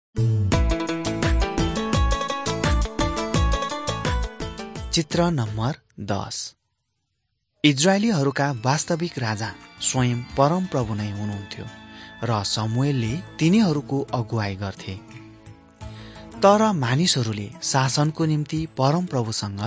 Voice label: servants of god nepali